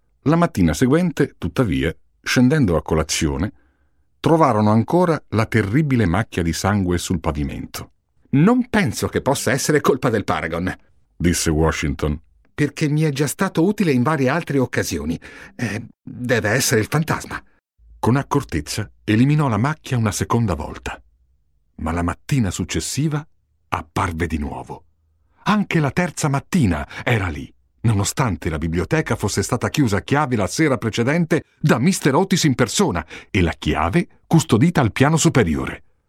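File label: Italian M Narrative, male; voice over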